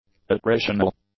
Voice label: "attritional", English; adjectives

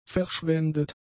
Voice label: "verschwendet", German; adjectives

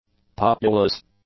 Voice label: "populous", english; adjectives

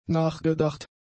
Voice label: "nachgedacht", German; adjectives

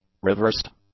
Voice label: "reversed", English; adjectives

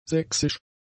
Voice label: "sächsisch", German; adjectives

